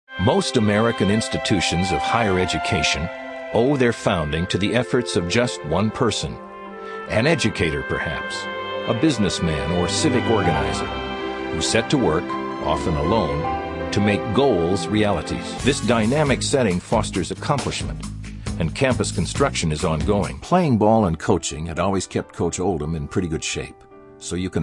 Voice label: male